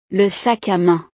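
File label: "le sac a main", French; ropa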